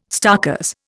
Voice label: "starkers", Indian

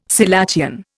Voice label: "selachian", Indian

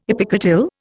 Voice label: "epicotyl", Indian

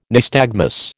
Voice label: "nystagmus", American

